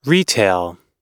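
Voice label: "retail", English; oxford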